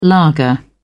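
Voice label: "lager", British English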